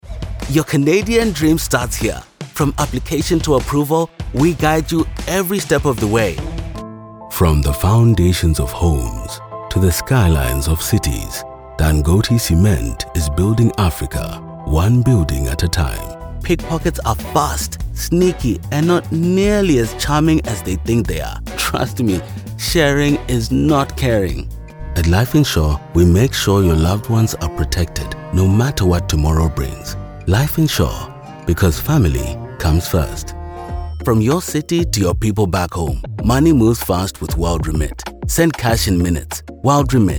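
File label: West African, Deep Male Voice Over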